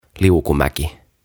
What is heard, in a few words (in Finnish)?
"Liukumäki", "Slide"